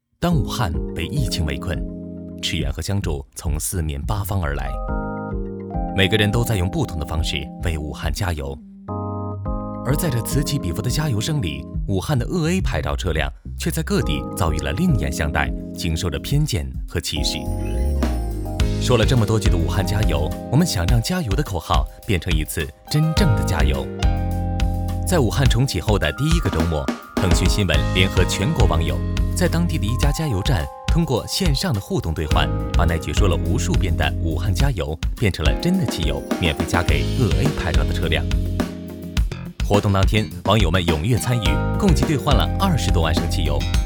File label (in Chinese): 男 旁白 年轻 素人; 素人风格男声